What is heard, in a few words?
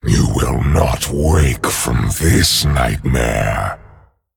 Male Dragon Start